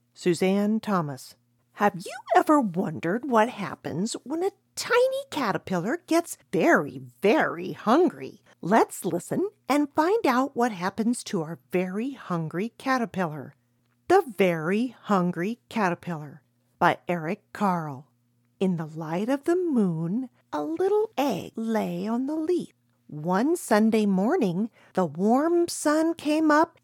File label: E-Learning; theveryhungrycaterpillar